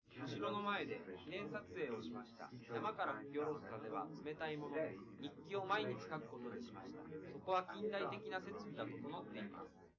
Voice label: male4 liverec 250ms 5cm sim